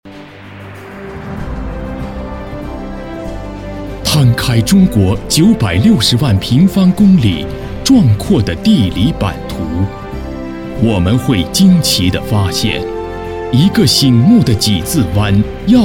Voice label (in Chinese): 男 专题 大气浑厚 音乐版; 临河音乐版